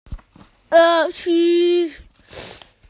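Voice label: "crocodile", Mandarin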